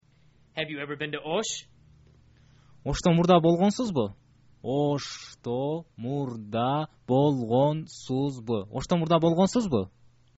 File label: have you ever been to osh, kyrgyz